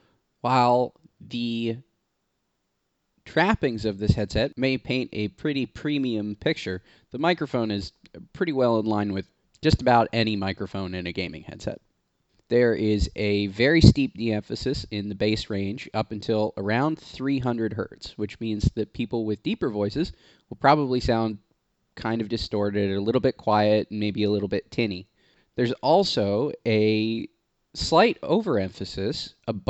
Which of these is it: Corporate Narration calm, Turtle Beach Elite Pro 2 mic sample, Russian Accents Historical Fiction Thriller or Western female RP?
Turtle Beach Elite Pro 2 mic sample